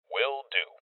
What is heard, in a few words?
Confirmation Male